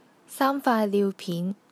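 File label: "faai3 niu6pin2", Cantonese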